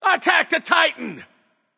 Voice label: vox attack m; smite; xbalanque